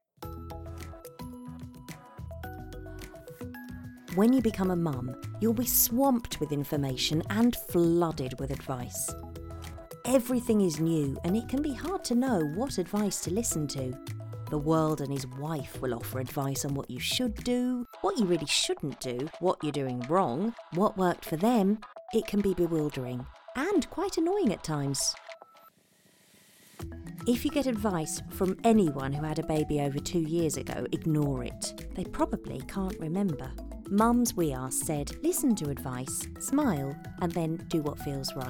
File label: Narration; Netmums